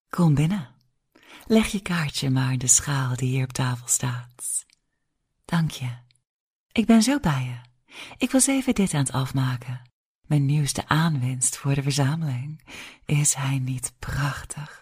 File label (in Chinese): 荷兰语女声